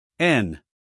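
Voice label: "n", American English, guy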